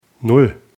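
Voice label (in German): "null", German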